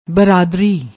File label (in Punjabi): "braadri", Punjabi